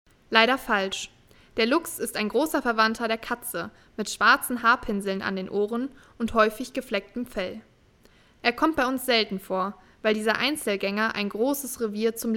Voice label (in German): Erklaertext Luchs; wildtierkamera; kinder